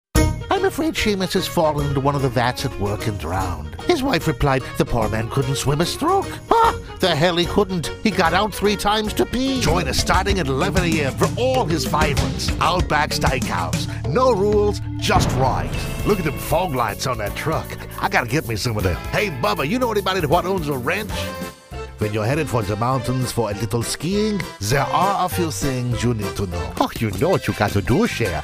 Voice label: dialects